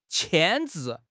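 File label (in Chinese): "牵制", Chinese; HSK6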